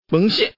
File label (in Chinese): "peng", man; mahjong paohuzi; youxian